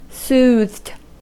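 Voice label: "soothed", US English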